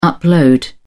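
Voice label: "upload", British English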